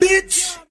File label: "bitch", expletives